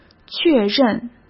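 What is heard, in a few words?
"确认", Mandarin Chinese; chinese-flashcards; hsk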